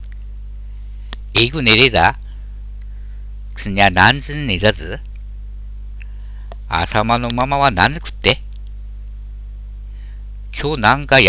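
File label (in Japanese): 会話 母と息子, 秋田弁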